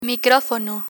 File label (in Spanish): "micrófono", Spanish